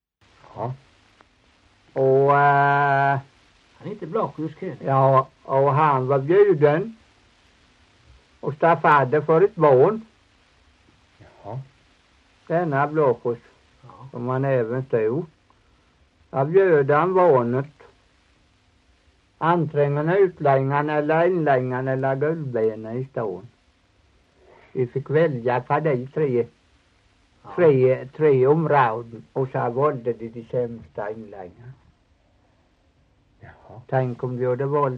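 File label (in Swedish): Torhamn Blekinge, Svenska sagor dialekter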